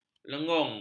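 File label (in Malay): "lengung", Malay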